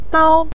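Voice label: "dao" Mandarin Chinese, neutral tone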